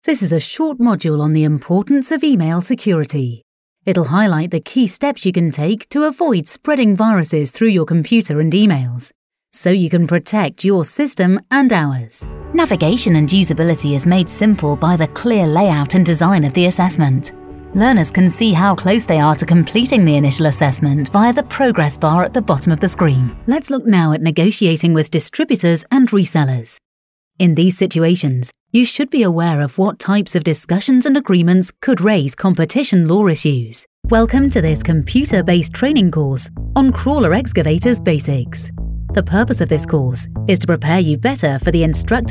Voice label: E-Learning Showreel